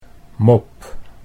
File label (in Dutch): "mop", Dutch